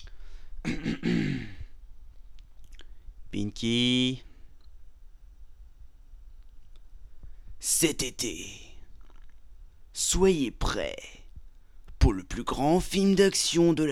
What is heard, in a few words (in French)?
test narrateur Retro; Donut Steel; Voix